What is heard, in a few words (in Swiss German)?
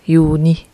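"Juni", Alsatian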